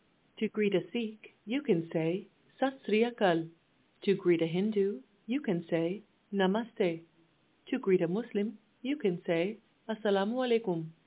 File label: Punjabi, hello